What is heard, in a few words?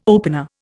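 "opener", Indian English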